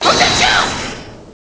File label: "objection", french